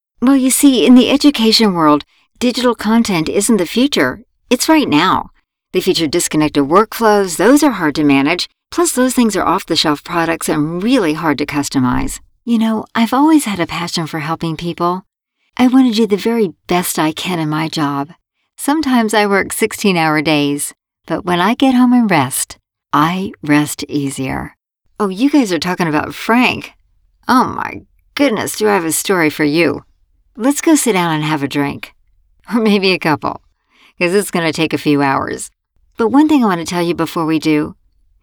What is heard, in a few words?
Conversational